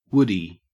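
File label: "woodie", Australian English; pronunciations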